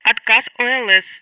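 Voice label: Speech Russian; Betty; EOSFailure; DCS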